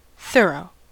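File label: "thorough", US English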